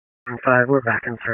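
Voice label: EMS Dispatch 1, p25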